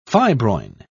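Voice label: "fibroin", English, British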